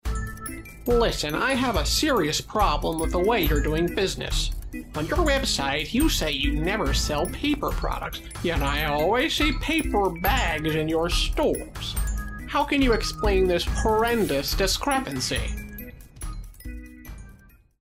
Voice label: Nasal